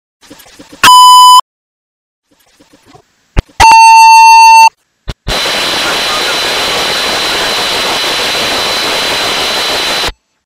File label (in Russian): Zvuk dispetcher